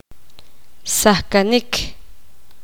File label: poqomam; rascar